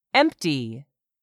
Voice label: "empty", English; 3000 words

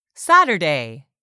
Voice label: "saturday", English; 3000 words

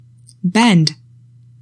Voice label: "BEND", English; 3000 words

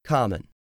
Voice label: "common", english; 3000 words

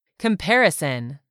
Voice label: "comparison", English; 3000 words

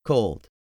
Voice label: "COLD", english; 3000 words

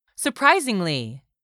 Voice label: "surprisingly", English; 3000 words

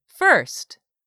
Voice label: "first", English; 3000 words

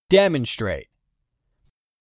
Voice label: "demonstrate", English; 3000 words

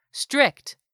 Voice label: "strict", English; 3000 words

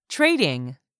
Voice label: "TRADING", english; 3000 words

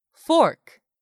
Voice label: "fork", English; 3000 words

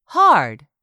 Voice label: "HARD", english; 3000 words

